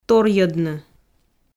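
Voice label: "торйӧдны", Komi, female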